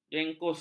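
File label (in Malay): "jengkus", Malay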